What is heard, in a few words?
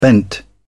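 "bent", English, British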